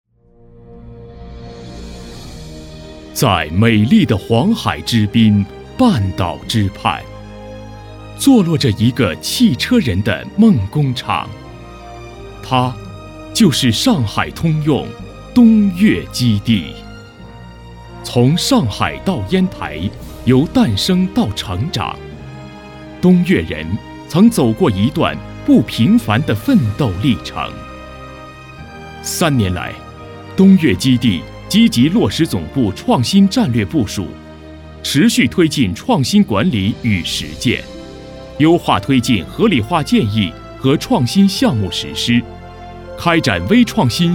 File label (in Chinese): 男 激昂大气 企业宣传片; 上海通用东岳基地